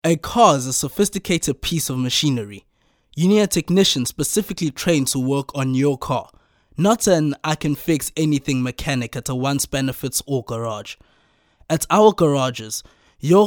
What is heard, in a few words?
soft sell